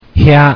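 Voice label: "Hya", Japanese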